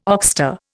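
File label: "oxter", Indian English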